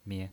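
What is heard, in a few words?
"mie", pinyin voice, Mandarin Chinese, neutral tone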